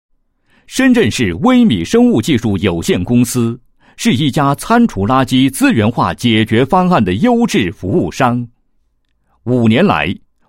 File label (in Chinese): 男 企业; 某市微米生物技术有限公司